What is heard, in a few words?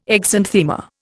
"exanthema", Indian